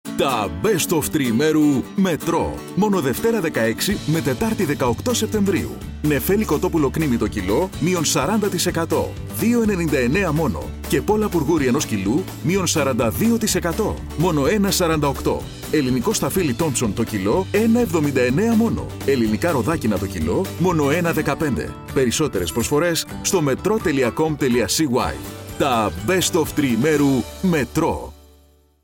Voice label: Greek Radio commercial